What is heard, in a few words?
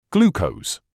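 "glucose", British English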